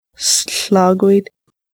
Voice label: "bed", Lushootseed